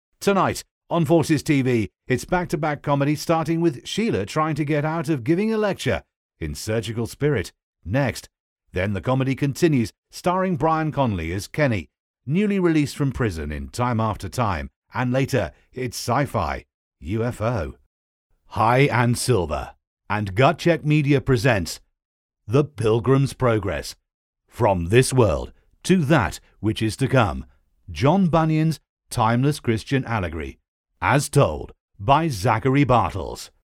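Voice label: English British, male, voice over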